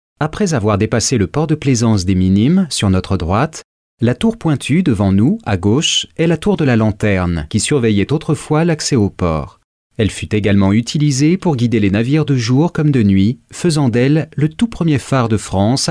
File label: Audioguide